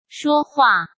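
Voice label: "说话", Mandarin Chinese; hsk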